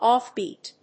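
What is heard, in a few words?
"offbeat", English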